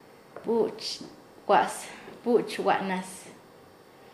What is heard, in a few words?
Nasa Yuwe; ayuda; paez